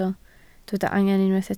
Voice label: "angen", Welsh